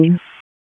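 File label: Police District Dispatch; wake; p25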